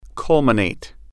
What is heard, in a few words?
"culminate", English